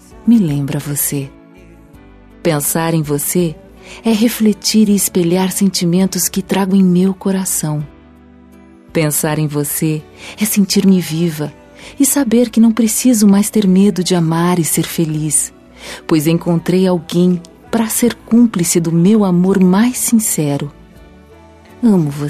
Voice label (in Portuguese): Namorados Boa Tarde F